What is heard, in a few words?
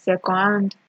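"second hand", Nigerian Pidgin, female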